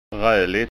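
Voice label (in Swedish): "raligt", Skåne dialect Swedish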